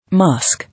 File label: "Mosque", English